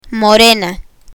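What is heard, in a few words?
"morena" Spanish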